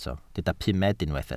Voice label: "pumed", welsh